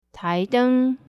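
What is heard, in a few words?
taideng, desk lamp, mandarin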